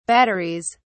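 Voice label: "batteries", British English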